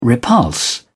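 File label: "repulse", British English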